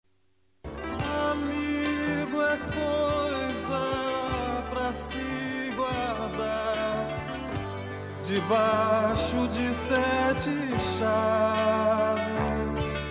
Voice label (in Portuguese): dia amigo amiga fem, dia do amigo a voz feminina